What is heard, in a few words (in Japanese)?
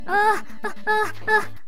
ダメージボイス; 悪魔城ドラキュラ(FC版)